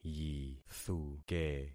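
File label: "yithuke", concatenated syllables; Affixing experiment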